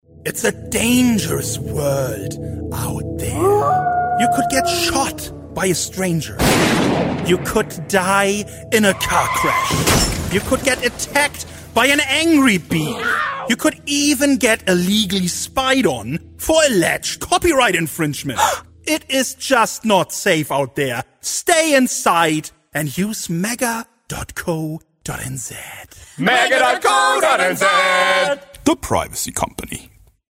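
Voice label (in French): spot, spots radio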